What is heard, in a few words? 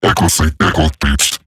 Patrol Robot Large, Barks, Battle